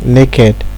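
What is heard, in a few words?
"naked", Nigerian Pidgin, male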